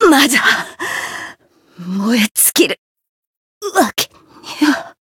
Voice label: retire jp